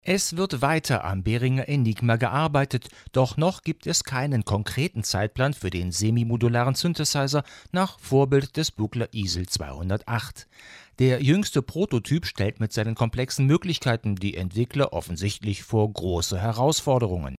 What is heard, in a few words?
Maono PD400X USB Legacy Near